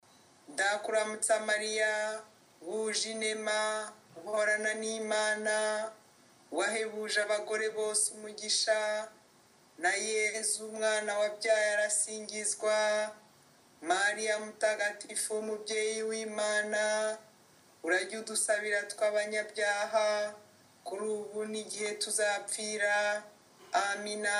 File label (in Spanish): ave maria Kinyarwanda normal